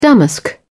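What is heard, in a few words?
"damask", British English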